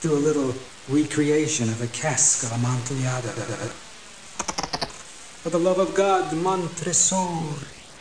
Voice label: static; Babylon 5; Bester